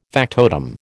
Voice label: "factotum", American

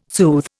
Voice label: "soothe", Indian

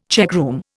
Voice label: "checkroom", Indian

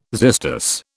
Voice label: "xystus", British

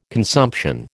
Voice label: "consumption", American

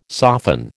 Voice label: "soften", American

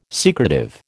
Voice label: "secretive", American

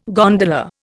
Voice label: "gondola", Indian

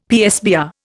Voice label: "PSBR", Indian; Dictionary

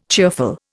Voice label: "cheerful", Indian

